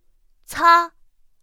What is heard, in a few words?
"cā", pinyin; pinyin2; pinyinji